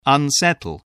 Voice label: "unsettle", English spelling voice